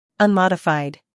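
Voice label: "humor", British; unmodified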